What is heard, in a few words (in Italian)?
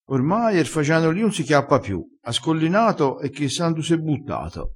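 "scollina", dialettale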